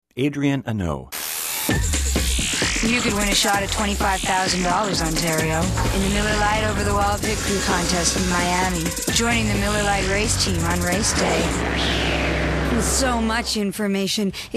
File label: commercial female